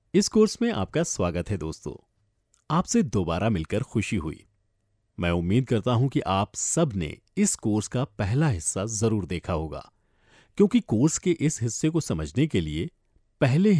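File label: E-Learning, Hindi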